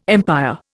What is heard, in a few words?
"empire", Indian